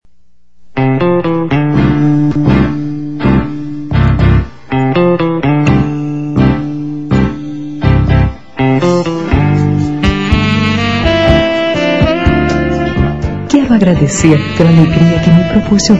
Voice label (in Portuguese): Mensagem F